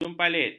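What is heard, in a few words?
"jumpalit", Malay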